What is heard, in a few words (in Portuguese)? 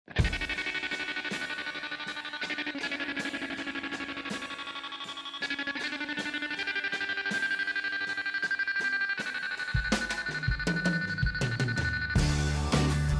ANIV ATRASADO PRIMA, telemensagem aniversario atrasado